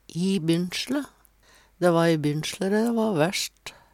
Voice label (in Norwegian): i bynslje, Numedalsmål; Dæ va i bynsjLe dæ va værst.